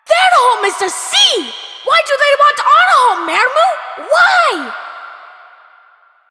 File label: Child